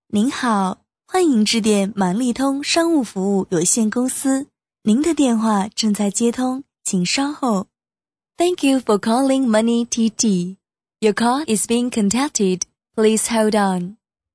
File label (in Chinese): 女声 中英 彩铃